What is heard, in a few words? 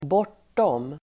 "bortom", Swedish